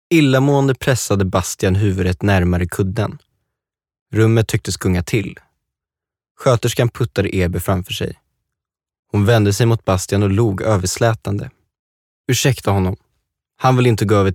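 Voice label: Swedish audiobook male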